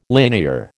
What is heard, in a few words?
"linear", American